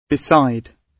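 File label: "beside", British English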